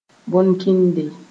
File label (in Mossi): "bõn-kɩnde", moore